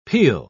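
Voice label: "peal", English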